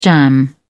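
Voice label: "jam", British English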